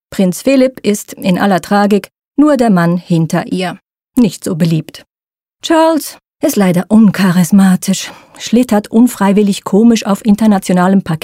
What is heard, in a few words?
german narration